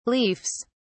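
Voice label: "leafs", English, British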